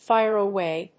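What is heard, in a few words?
"fire away", English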